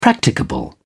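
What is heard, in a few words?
"practicable", English, British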